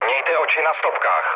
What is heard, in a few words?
chat, speech Czech; War Thunder